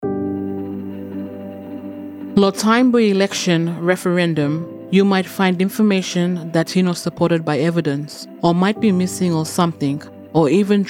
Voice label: Yumplatok Radio mix; indigenous; AEC Misinfo